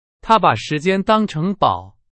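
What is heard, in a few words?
Chinese; hsk4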